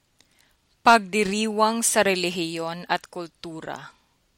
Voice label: tagalog